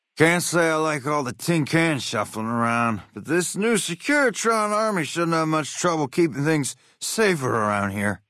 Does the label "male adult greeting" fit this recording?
Yes